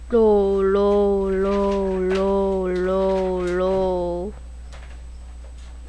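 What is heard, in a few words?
"low", spoken word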